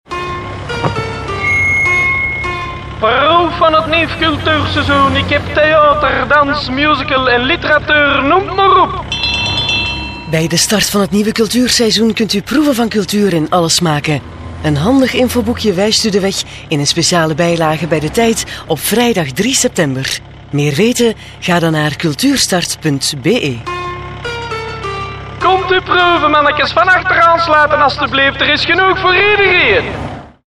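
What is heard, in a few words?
flemish female commercial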